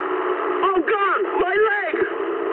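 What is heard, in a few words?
damaged pilot mood high, speech English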